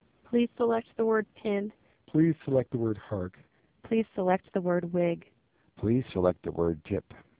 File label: AMR NB 4750 clean; Codec